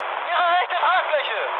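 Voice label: German right wing mood high, speech; War Thunder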